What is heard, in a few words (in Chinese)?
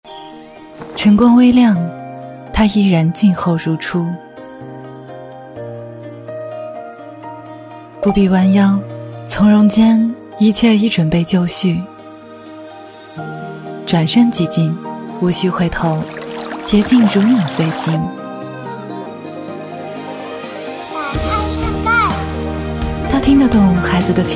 女 TVC 家居 智能马桶; 九牧智能马桶